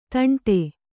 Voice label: "ದಂಡೆ", Kannada

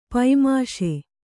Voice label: "ಪೈಮಾಸಿ", Kannada

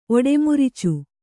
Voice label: "ಒಡೆಮುರುಚು", Kannada

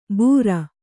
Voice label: "ಬೂರಾ", Kannada

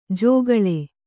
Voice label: "ಗೊಳ್", Kannada

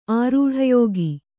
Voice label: "ಆರೂಢಯೋಗಿ", Kannada; ārūḍhayōgi; noun; an accomplished mystic.

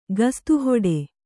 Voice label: "ಕುಸ್ತಿಹಿಡಿ", Kannada

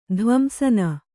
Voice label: "ಧ್ವಂಸನ", Kannada

